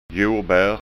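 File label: "jorbar", Scanian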